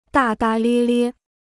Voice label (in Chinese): "大大咧咧", Chinese